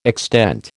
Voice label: "extent", American

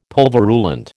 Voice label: "pulverulent", American

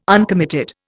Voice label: "uncommitted", Indian

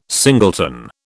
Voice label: "singleton", British

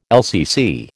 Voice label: "LCC", American

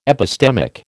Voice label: "epistemic", American

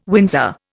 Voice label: "Windsor", Indian

